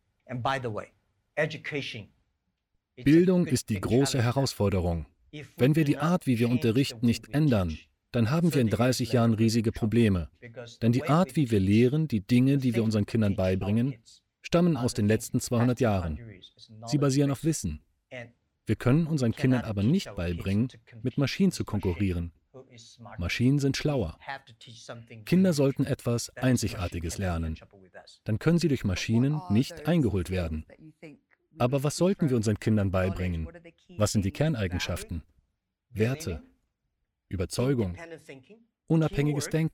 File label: Voice Over